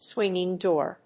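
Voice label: "swinging door", English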